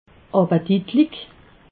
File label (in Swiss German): "appetitlig", alsace